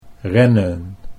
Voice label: "rennen", Dutch

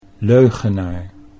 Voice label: "leugenaar", Dutch

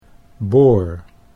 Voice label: "boor", Dutch

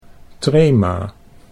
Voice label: "trema", Dutch

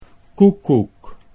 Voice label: "koekoek", Dutch

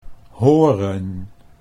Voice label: "horen", Dutch

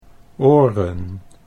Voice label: "oren", Dutch

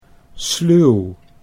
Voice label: "sluw", Dutch

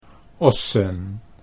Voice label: "ossen", Dutch

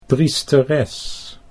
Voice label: "priesteres", Dutch

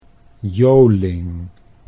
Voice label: "Joling", Dutch

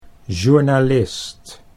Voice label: "journalist", Dutch

